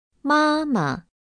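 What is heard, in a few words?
"妈妈", Chinese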